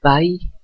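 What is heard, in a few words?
"Bei", Upper Alsatian Swiss German; Milhüsa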